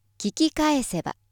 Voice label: female